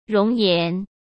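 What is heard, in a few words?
"容颜", Mandarin Chinese; chinese-flashcards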